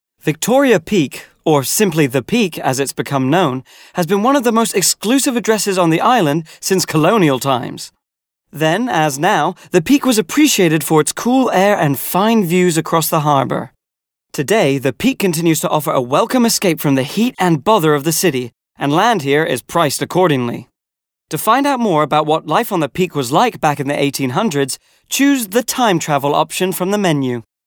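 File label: British English male corporate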